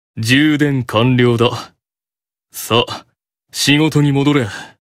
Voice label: AP Notification Voice; Lucifer